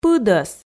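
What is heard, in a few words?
"пыдӧс", Komi, female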